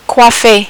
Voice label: "coiffer", French